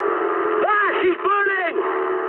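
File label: English on fire mood high; speech; War Thunder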